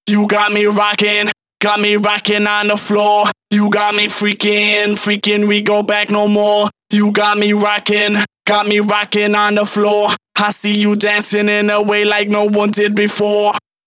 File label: Rap Vocals Chorus DRY, 140 BPM; Vengeance Samples; Song Kits; U Got Me Rocking